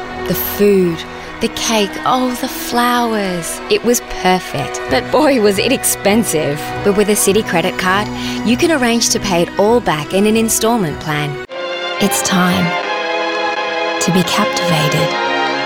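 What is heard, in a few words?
English Australian F Showreel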